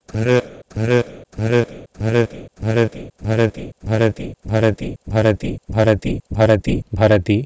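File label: Proto-Indo-European bhrHeh2 to Sanskrit bharati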